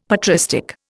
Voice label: "patristic", Indian; Dictionary